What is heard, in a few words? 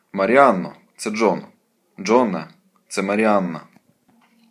dialogue, Ukrainian